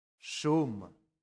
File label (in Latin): "sum", latin; santillana